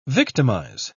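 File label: "victimise", British English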